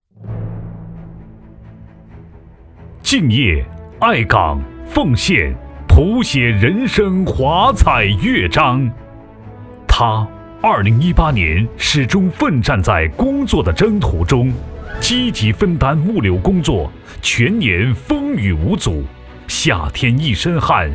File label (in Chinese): 男 颁奖